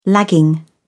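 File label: "lagging", British English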